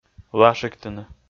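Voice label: "лашиктыны", Komi, male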